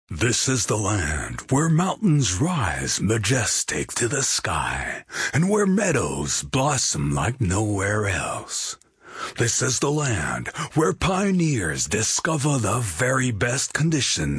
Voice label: Voice Over, US; McDo